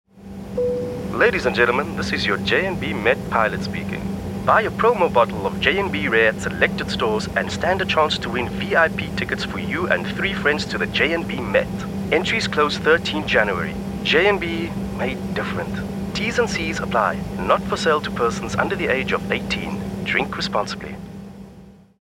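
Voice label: Conversational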